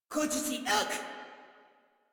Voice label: Reload Female; Warframe; Modular Corpus Female